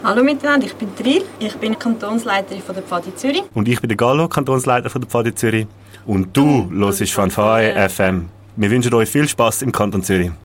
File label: Station ID; Gallo-Triel